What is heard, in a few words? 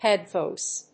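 "head voice", English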